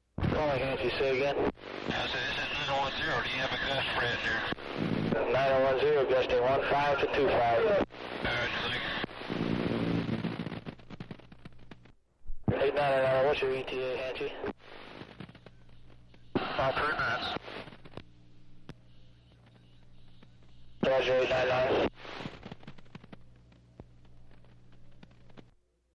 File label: Futz Radio Aircraft, static voices